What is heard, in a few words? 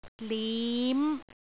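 "lim5", Cantonese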